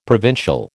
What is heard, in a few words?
"provincial", American